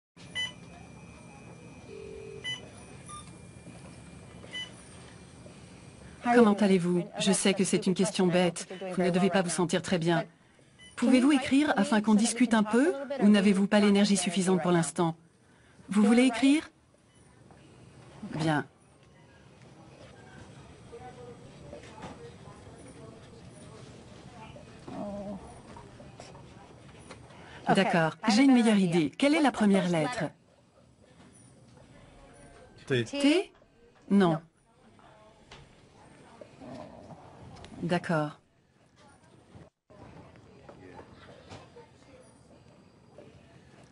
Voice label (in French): Voice over documentaire; Extremis